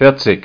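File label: "vierzig", German; ZAEHLEN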